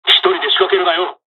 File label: dialogs japanese; War Thunder